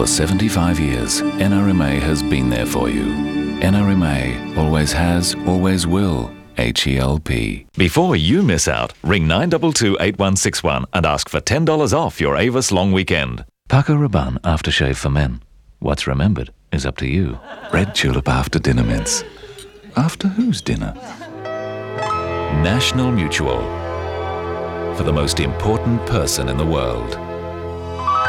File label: Radio TV